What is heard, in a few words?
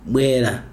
"come", Chikunda